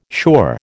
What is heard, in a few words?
"sure", American